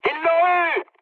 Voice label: aircraft destroyed mood high, speech fr